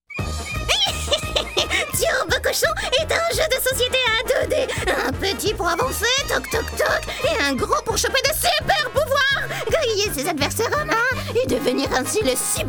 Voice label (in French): sorciere; turbocochon